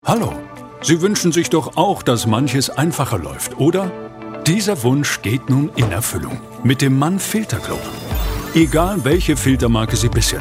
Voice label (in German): erklaervideos